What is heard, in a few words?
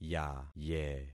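"yaye", concatenated syllables; Affixing experiment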